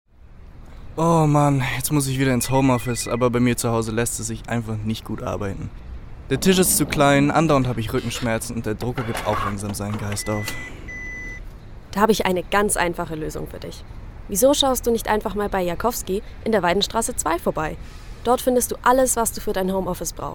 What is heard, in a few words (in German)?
Werbung